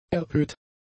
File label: "erhöht", German; adjectives